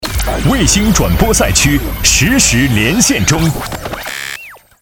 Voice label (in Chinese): 中国儿童音超联赛转播赛区连线片头